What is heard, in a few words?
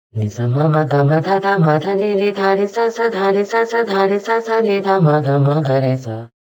pitch shift voice; prosoniq time factory clear scale